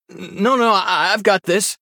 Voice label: Male Human Attack

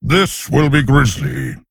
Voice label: Male Tauren

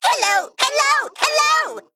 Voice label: Male Mech Picked

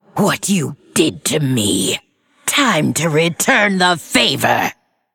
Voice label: Female Lich; Vs Cariel

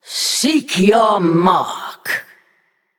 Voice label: Female Naga Picked

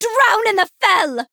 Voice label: Female Night Elf Attack

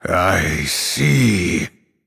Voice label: Male Night Elf TRIGGER

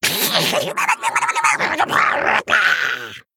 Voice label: Male Murloc Play

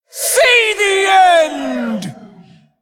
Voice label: Female Darkglare Attack

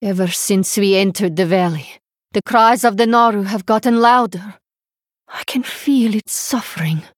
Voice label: Female Draenei

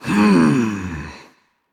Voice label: Female Val'kyr Thinking

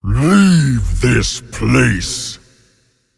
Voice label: Male Ancient Attack

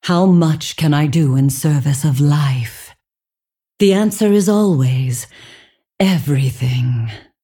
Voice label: Female Dragon Bark; Alexstrasza

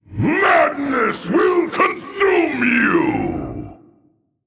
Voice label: Male Old God Trigger

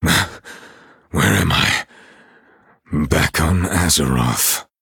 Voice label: Male Night Elf; Kurtrus

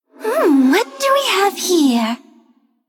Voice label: Female Banshee

